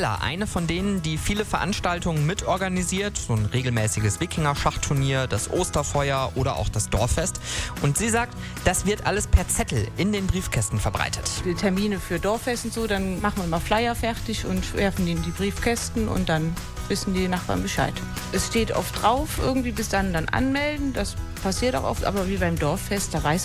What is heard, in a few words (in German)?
mitschnitt radio; berg am morgen dorfapp